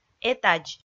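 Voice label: "этадз", Komi, female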